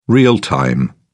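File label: "real-time", British English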